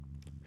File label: demonic techno voice "hear it"